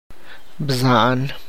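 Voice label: Tlacochahuaya Zapotec; brother of a female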